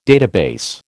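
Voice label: "database", American English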